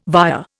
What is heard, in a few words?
"via", Indian